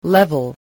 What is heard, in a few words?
"level", spelling